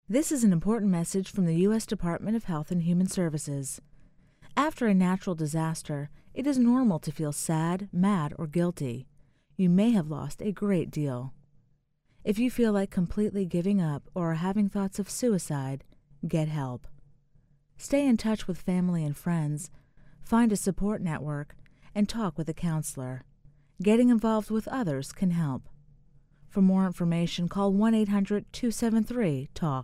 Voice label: psa disaster coping depression female; disasters